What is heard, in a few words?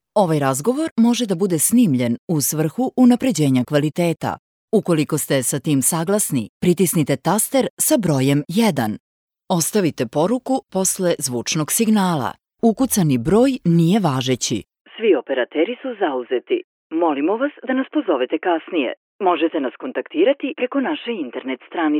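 Serbian, female, ivr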